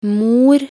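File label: "mor", Norwegian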